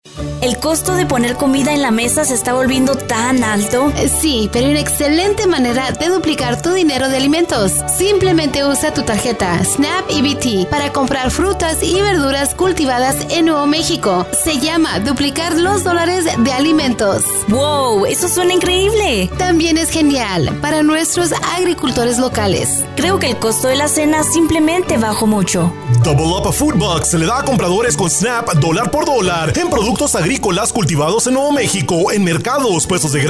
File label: SPANISH LANG